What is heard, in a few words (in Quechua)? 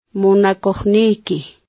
"munakuqniyki", Quechua